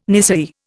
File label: "nisei", Indian